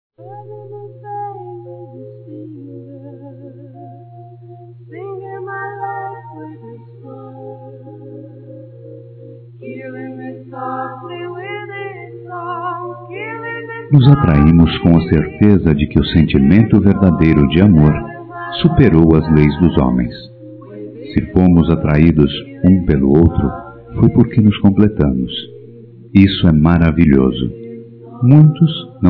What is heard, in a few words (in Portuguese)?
Masculino, Dia Dos namorados; GLS; Telemensagens 2017